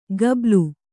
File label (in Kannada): "ಗಬ್ಬಲು", kannada